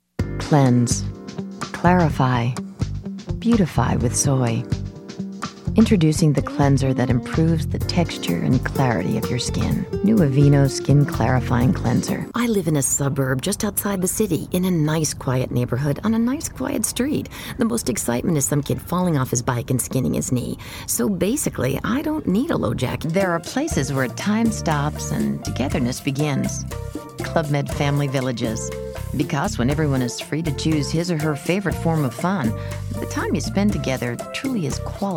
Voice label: Deeper Voice